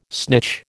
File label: "snitch", American